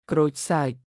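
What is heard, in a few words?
"ក្រូច សើច", Khmer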